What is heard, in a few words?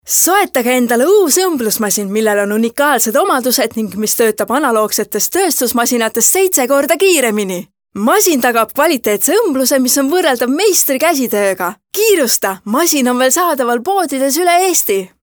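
Estonian female Active, vo examples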